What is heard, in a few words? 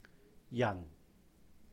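"人", Cantonese; people; yahn; jan4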